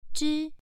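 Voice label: "zhi1", Chinese pronunciation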